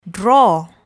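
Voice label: "draw", English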